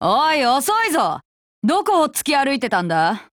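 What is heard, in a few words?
HELLO, Japanese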